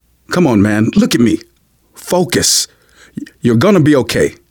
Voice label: revive friend, survivor voice manager